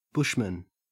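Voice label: "bushman", Australian English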